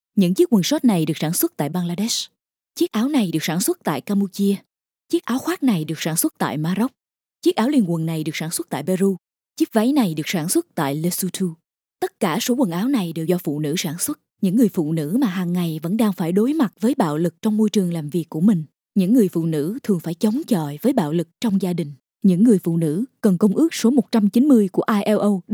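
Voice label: vietnamese documentaries, female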